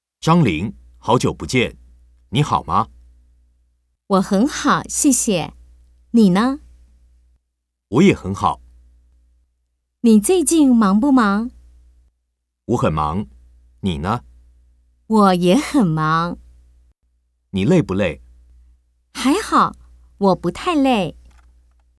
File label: Dialogue, Chinese